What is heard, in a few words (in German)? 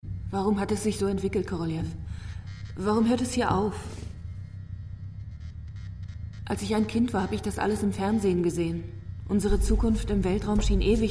hoerspiel; roter stern